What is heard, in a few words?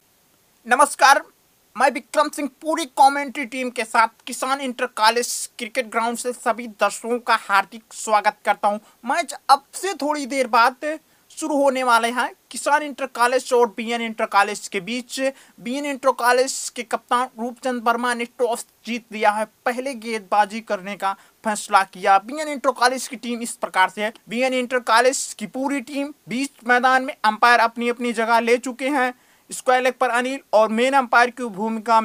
cricket, Hindi cricket commentator, live cricket commentary in Hindi; Teenage boy, Young adult male